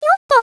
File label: run away, voice commands; svencoop; Miku